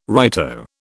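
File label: "righto", British English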